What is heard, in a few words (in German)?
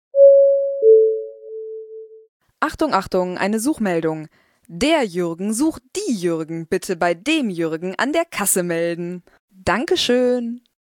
durchsage